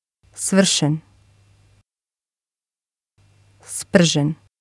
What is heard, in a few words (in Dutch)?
"svrsen", bosnisch